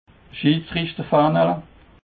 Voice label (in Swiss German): "schidsrichtrerfaehnele", Alsace